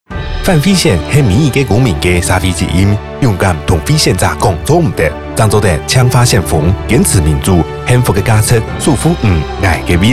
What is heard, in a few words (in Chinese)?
客語; 111年法務部反賄選